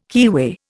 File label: "keyway", Indian